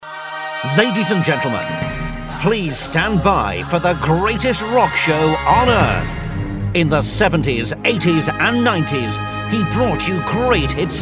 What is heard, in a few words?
Voice of God